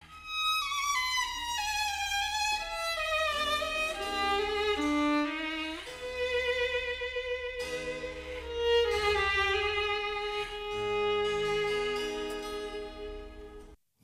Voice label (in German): Aircheck, Klassik Radio; Klassik fuer Kinder